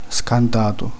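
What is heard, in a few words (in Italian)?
"spaventato", Italian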